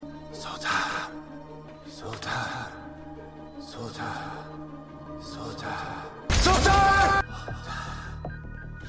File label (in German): Mann Im Krankenhausbett, Stimmproben; The Flash